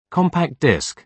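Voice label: "compact disc", British English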